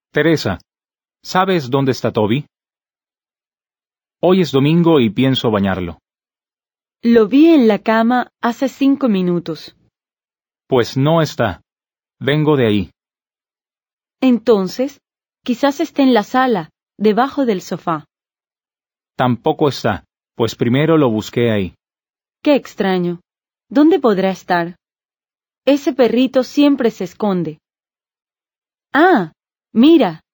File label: Dialogue, Spanish